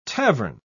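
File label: "tavern", English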